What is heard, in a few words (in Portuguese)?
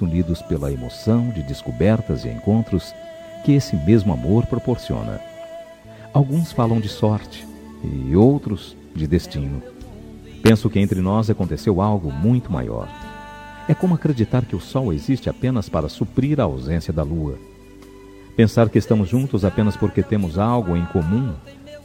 dia dos namorados gospel masculina namorada